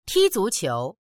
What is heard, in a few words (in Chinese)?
"ti1zu2qiu2", voices; 踢足球; サッカーをする; dictionary